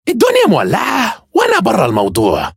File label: hurt vo; finx; 芬克斯; 神话